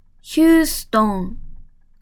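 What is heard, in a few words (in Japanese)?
"ヒューストン", Japanese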